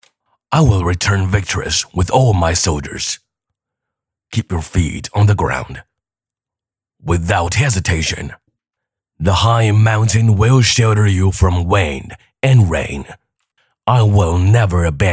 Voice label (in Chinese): 男 英文角色; 罗兰